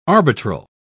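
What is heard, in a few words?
"arbitral", British English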